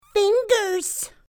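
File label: "fingers", English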